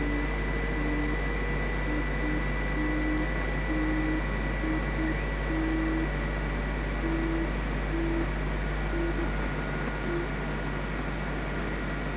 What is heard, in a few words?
qso